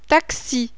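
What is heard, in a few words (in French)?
"TAXI", French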